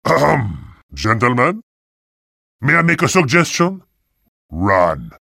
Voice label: ahem; night wanderer